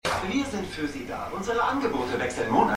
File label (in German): TV Werbesprecher